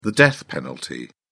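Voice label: "death penalty", British English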